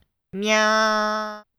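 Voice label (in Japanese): "みゃ", Japanese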